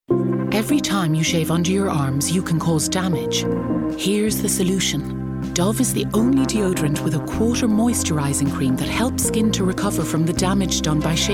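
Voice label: Irish female montage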